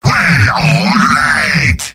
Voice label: positive vocalization; Demoman

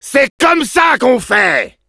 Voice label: positive vocalization fr; Demoman

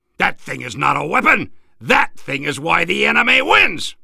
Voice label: Soldier weapon taunts

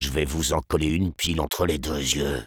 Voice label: taunts fr; Sniper

